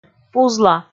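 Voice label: "пузла", Komi, female; kpv audio dict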